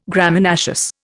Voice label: "Graminaceous", Indian